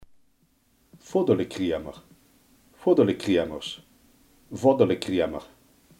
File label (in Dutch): "voddeman", limburgse